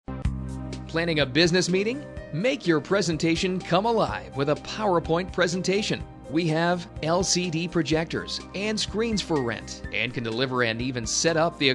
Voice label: Office Supply On Hold; supply distribute; Tylanders Office Supply